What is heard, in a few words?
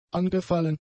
"angefallen", German; adjectives